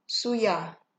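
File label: "suya", Nigerian Pidgin, female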